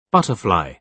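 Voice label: "butterfly", British English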